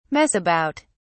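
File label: "mess about", British English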